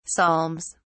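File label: "psalms", British English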